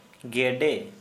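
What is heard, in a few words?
Santali male duck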